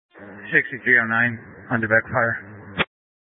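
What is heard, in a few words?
Fire Dispatch, scanner audio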